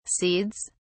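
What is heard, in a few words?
"seeds", British English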